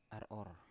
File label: "ar7or" Biak